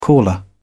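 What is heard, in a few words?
"caller", British English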